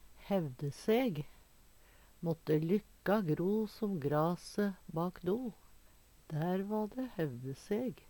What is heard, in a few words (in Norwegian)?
"hevdeseg", Numedalsmål